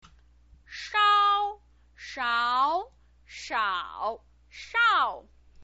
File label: "shao", chinese pinyin